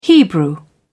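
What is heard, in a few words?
"hebrew", British English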